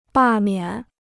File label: "罢免", Chinese